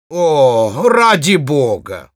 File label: Spy negative vocalization, ru